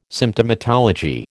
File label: "Symptomatology", American